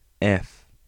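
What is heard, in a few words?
English alphabet f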